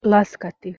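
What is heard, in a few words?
"laskati" Bosnian Serbian Croatian, female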